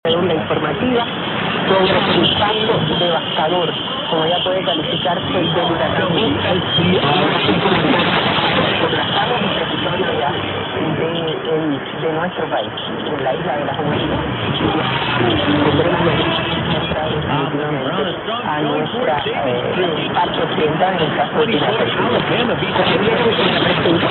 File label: 670, mw dxing; wscr